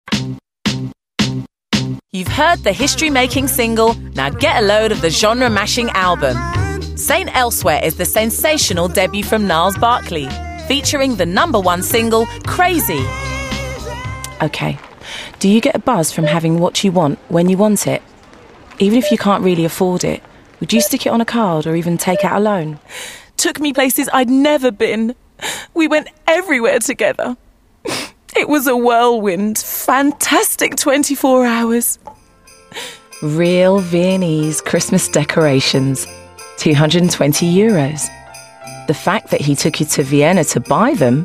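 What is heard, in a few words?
commercials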